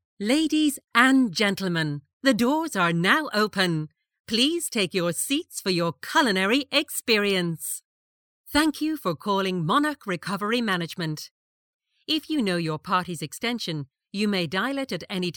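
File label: Irish English, female, voice over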